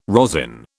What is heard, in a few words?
"rosin", British